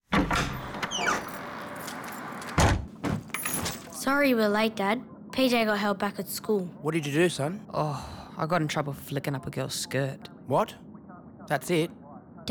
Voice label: Indigenous English radio ad; Phase two